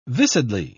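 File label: "viscidly", English, British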